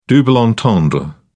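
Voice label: "double entendre", British English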